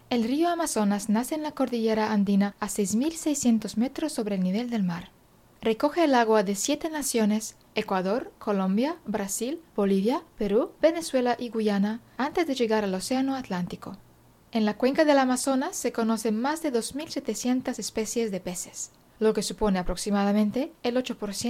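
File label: European Spanish, Audiobook; GoLocalise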